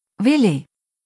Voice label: "Willi", deutsch, jungennamen

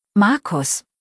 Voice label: "Marcus", deutsch, jungennamen

